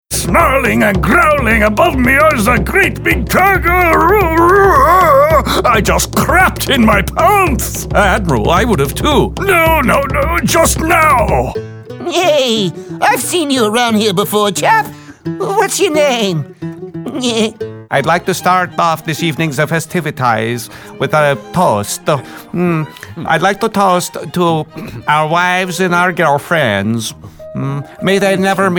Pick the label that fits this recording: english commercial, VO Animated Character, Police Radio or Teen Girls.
VO Animated Character